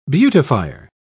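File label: "beautifier", British English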